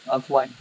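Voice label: voice acting, es, female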